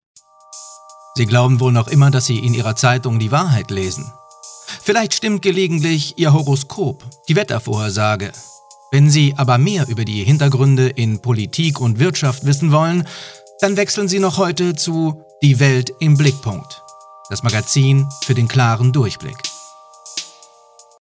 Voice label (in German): Sample Werbung